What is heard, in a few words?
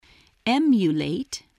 "emulate", spelling